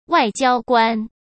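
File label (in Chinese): "外交官", Mandarin Chinese; hsk; chinese flashcards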